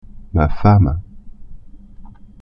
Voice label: "femme", French

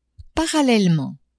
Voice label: "parallèlement", French; adverbs

